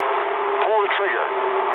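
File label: English attack position mood low; War Thunder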